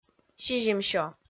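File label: "сизимсё", Komi, female; kpv audio dict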